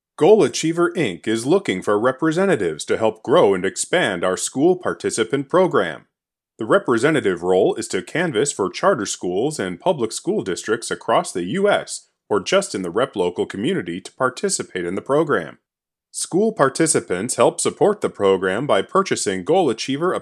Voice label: 外籍男英 外语 美式英语 专题 每分120 自然

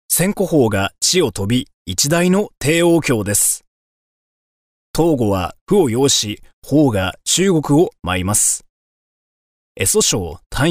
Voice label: 日语男; 外语 小语种

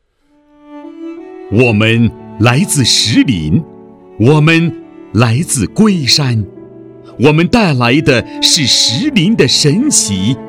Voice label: 男国 对播 朗诵 女国

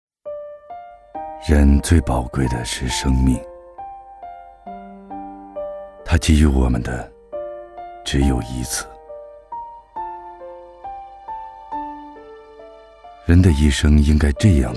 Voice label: 其他 旁白 沧桑独白

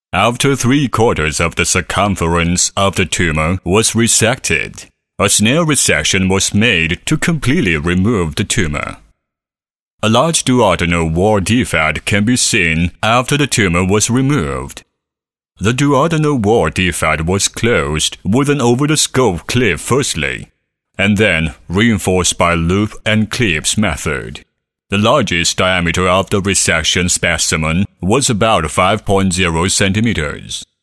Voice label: 男英 外语 国内英语 正式英文严肃清晰

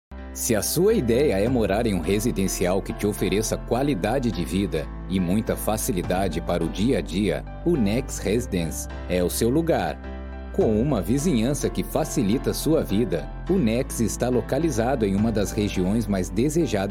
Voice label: 葡萄牙语男 外语 亲切; 小语种

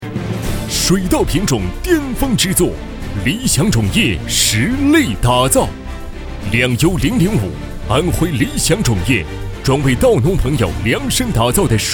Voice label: 广告 农化, 男; 农化两优005

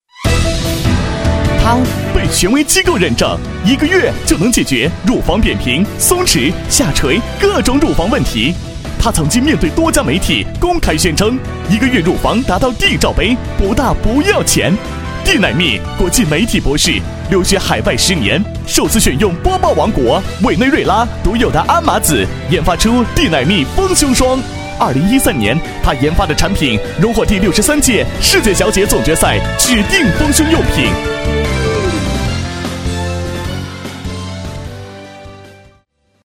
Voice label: 广告 电购 活力电购, 男; D奶蜜

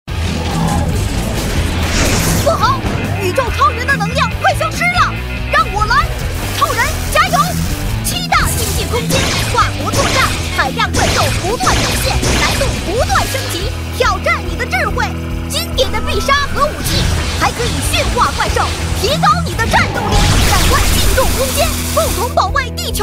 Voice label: 动画 角色 激情少年音; 奥特曼

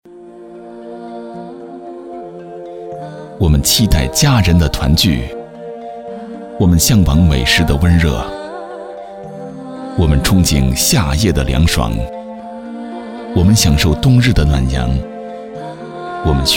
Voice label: 广告, 公益, 公益短片, 稳重

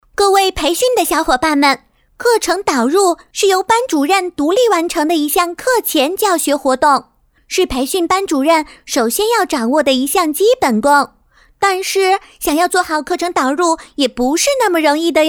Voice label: 多媒体 培训课件 小女孩 可爱 讲述

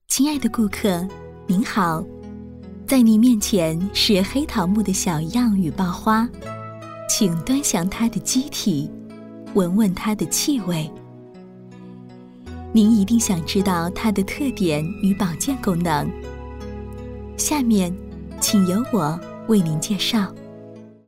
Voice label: 产品演示, 产品介绍

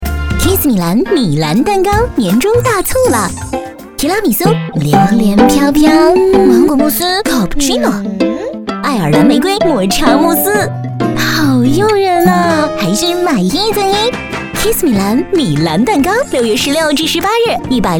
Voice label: 广告 食品饮料 甜美

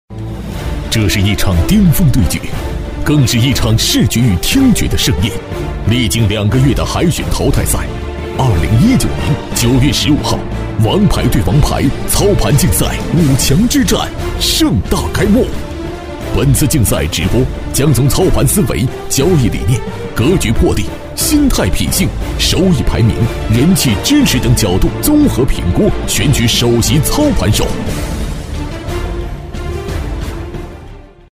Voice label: 男国 其他 栏目 比赛

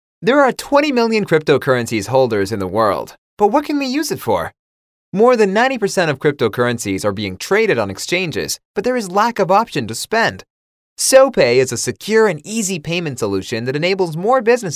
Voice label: 外籍男英 外语 美式英语 样音 轻松

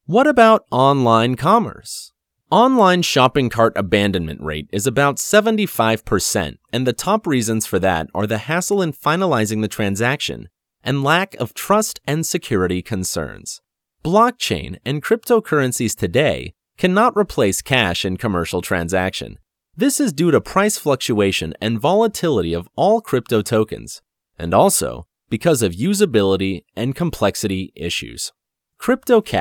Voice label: 外籍男英 外语 美式英语 干声

